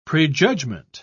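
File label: "prejudgement", English, British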